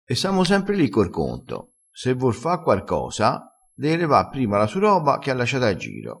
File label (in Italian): "esse sempre li cor conto", vocabolario dialettale